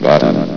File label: "button", vox; Source Engine; bshift